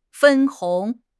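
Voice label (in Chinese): "分红", Chinese; HSK6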